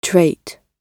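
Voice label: "trait", British English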